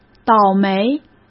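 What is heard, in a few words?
"倒霉", Mandarin; chinese flashcards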